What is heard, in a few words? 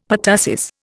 "pertussis", Indian; Dictionary